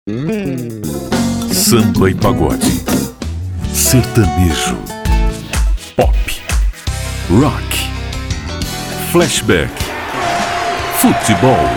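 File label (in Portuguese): Seja qual for a sua vibe, masculino; RadiosNet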